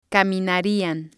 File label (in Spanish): "caminarian", spanish